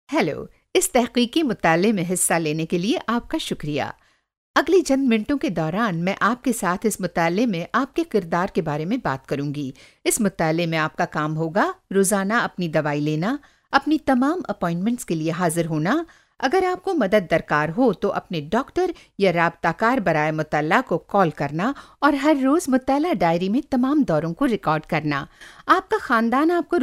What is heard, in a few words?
Urdu Female